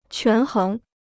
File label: "权衡", Chinese